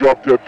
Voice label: Vox Drop